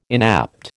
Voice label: "inapt", American